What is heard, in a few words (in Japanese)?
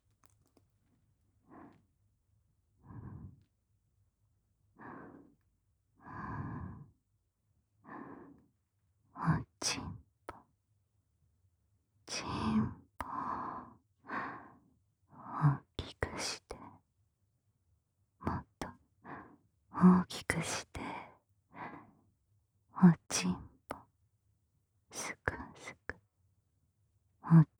ダウナー ささやき ボイス